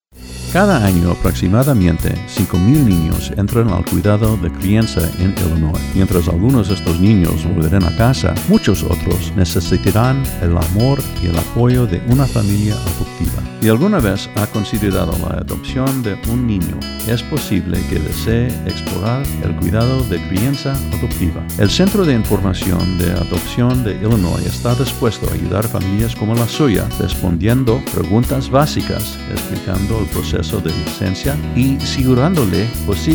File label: SPANISH, public service announcements; DCFS; ADOPTION